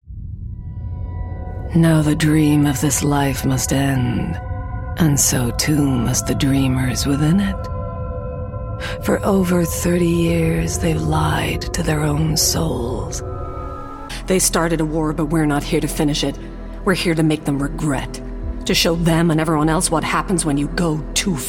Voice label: American

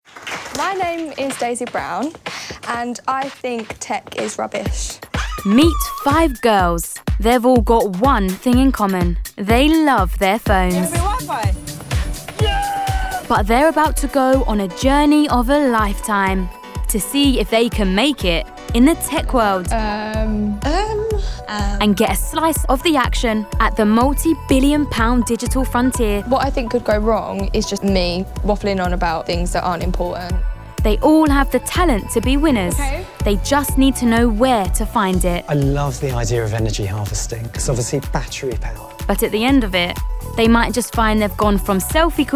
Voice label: Documentary

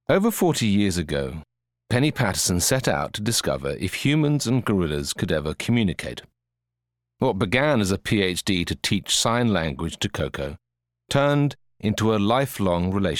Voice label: Documentary

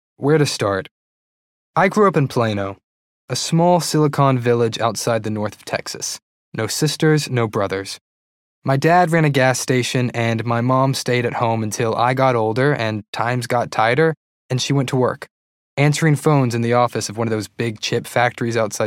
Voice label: narration reel

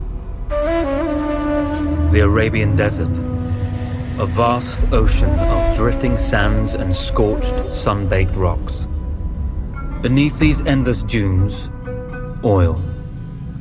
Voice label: Character

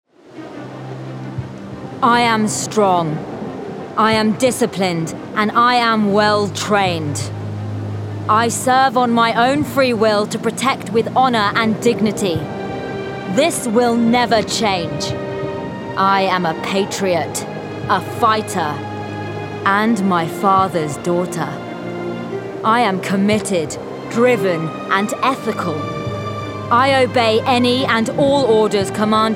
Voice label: Character

